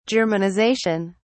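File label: "germanisation", British English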